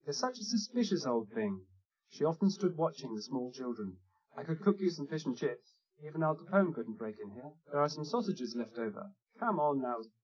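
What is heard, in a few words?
male speech; SiSEC10; underdetermined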